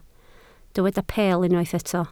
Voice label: "peel", Welsh

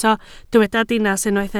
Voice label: "dinas", Welsh

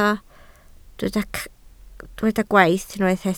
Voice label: "gwaith", Welsh

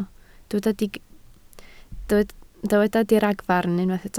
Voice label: "diragfarn", Welsh

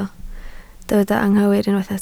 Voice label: "anghywir", Welsh

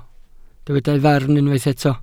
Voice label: "farn", welsh

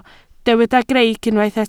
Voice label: "greic", Welsh

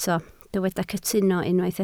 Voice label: "cytuno", Welsh

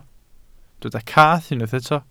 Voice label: "cath", Welsh

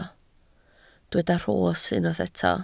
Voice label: "rhos", Welsh

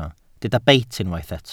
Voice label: "beit", Welsh

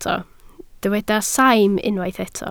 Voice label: "saim", Welsh

